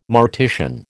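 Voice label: "mortician", American English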